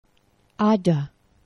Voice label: "ada", esperanto; geneva